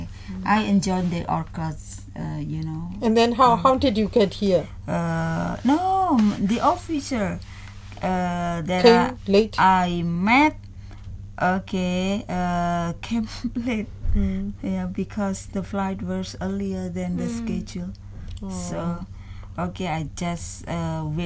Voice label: Key issues in using English as a Lingua Franca Non-native speaker recording; CPD